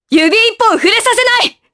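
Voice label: Vox Skill, voices, Japanese; Naila; kingsraid; voices heroes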